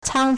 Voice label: "cang1", Chinese